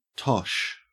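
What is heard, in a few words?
"tosh", Australian English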